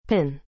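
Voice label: "pin", English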